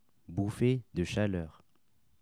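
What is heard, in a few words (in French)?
"bouffee de chaleur", French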